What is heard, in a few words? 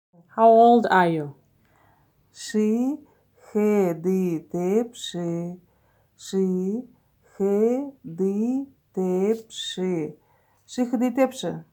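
Buryat How old are you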